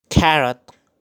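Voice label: "Carrot", pronunciation; Vegetales; vegetables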